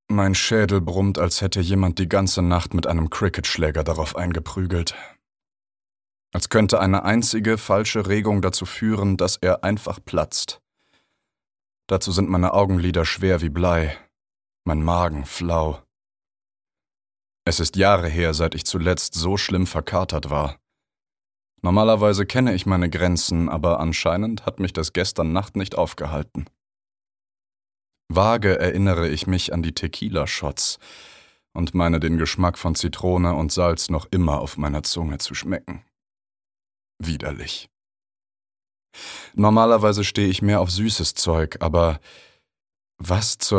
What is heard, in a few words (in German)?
Hörbuch - Of ocean and storm